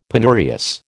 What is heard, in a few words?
"penurious", American